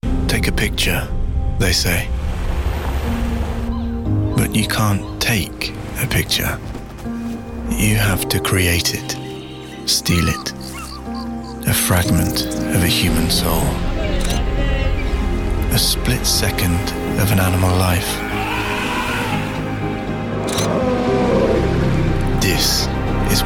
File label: Commercial Voice reel